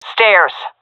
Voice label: loc stairs, seal fem; vo; Counter Strike Model Pack; CS:GO